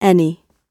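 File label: "any" British English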